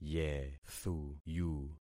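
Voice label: "yethuyu", concatenated syllables; Affixing experiment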